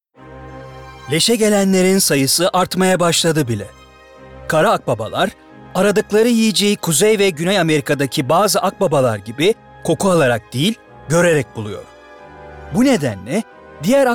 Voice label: Belgesel

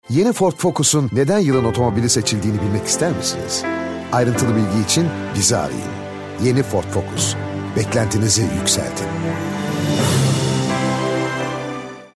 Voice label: Romantik

